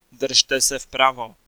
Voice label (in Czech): Bear Right, Czech, male